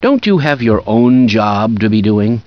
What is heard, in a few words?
disagree, scientist; XDM